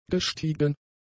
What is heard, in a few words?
"gestiegen", German; adjectives